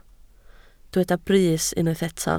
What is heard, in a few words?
"brys", welsh